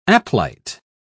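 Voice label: "aplite", British English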